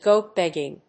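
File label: "go begging", English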